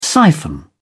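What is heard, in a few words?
"siphon", British English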